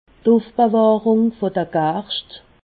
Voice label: "ufbewahrung", alsace

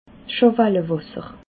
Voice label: "schawalawasser", alsace

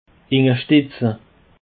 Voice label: "understetze", Alsace

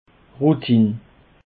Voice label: "routine", Alsace

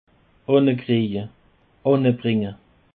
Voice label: "annekreje", Alsace

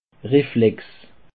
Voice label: "reflex", Alsace

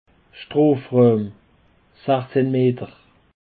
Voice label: "strofrauem", Alsace

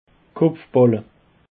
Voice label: "kopfballe", Alsace

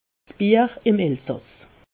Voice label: "bier", alsace

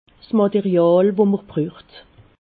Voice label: "material", alsace

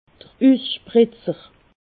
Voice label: "usspritzer", alsace

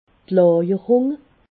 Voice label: "lajerung", alsace

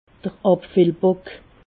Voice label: "abfillbock", alsace

